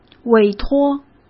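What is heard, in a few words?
"委托", Mandarin Chinese; hsk; chinese-flashcards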